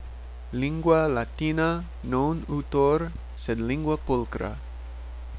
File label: Latin